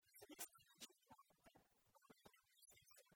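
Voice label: "оз места мичмӧд морттӧ а мортыд местасӧ", Komi, female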